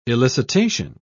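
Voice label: "elicitation", British English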